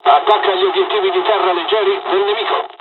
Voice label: ground attack, dialogs italian; midway jpn; War Thunder